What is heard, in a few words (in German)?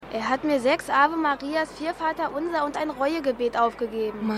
Junge; AusgerechnetAlaska